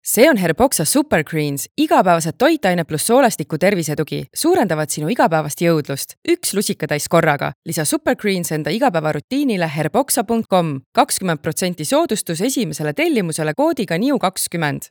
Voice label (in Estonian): reklaam; Herboxa; naishaaled